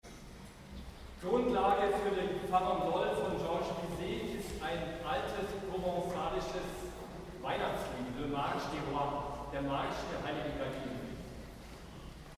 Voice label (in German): Ansage